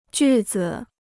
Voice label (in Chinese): "句子", Chinese